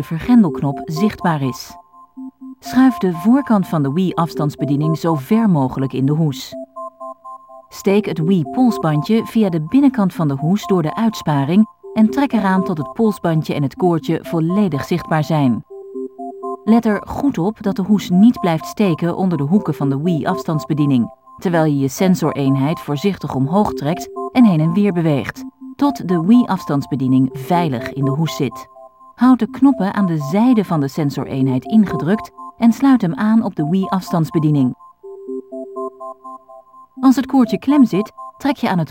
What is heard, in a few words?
Connecting Motion Plus (Dutch); skyward sword